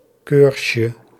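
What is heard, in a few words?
"keursje", Dutch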